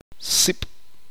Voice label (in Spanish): uspanteko humo